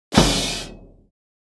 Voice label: comedy accent; NCH Library